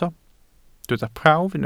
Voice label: "prawf", Welsh

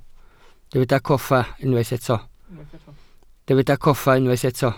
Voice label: "coffa", Welsh

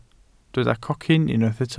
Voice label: "cocyn", welsh

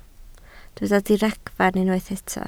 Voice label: "diragfarn", Welsh

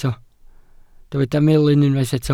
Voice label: "melyn", Welsh

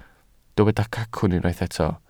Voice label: "cacwn", Welsh

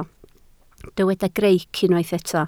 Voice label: "greic", Welsh

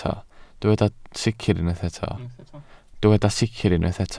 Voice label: "sicr", Welsh

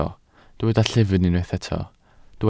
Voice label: "llyfn", Welsh

